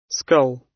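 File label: "skull", British English; Time, history; Thời gian, lịch sử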